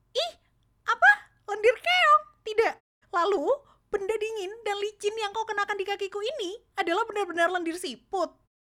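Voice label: character INDO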